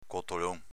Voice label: "cotolon", dialetto veneto; s.m. donnaiolo

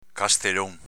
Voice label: "castelon", dialetto veneto; s.m. torsolo, tutolo

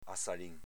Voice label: "azzalin", dialetto veneto

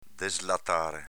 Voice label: "deslatare", dialetto veneto; deslatāre v. svezzare

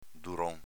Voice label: "duron", dialetto veneto; s.m. callo, durone

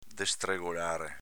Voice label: "destregolare", Veneto

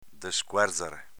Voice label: "descuerzare", dialetto veneto; v. scoperchiare

